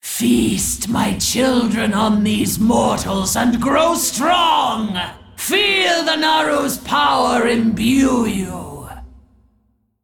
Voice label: Female Dragon Introduction; Onyxia